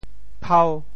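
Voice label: "phau5", Teochew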